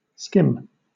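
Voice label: "skim" English, British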